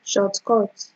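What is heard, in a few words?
"shortcut", Nigerian Pidgin, female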